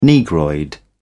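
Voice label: "negroid", British English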